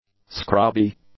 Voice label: "scrubby", English; adjectives

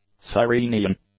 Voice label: "sirenian", english; nouns

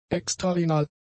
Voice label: "extrarenal", German; adjectives

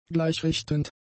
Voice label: "gleichrichtend", German; adjectives

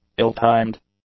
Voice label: "ill-timed", English; adjectives

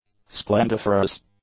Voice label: "splendiferous", english; adjectives

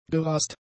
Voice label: "gerast", German; adjectives

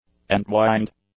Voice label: "entwined", English; adjectives

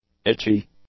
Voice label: "itchy", english; adjectives

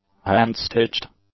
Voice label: "hand-stitched", English; adjectives

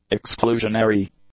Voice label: "exclusionary", english; adjectives

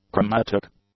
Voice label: "chromatic", English; adjectives

